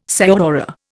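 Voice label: "señora", Indian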